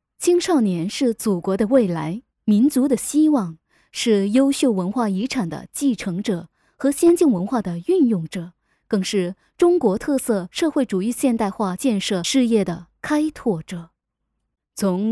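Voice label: Mandarin Female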